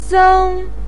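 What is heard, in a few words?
"seng", Chinese pinyin, first tone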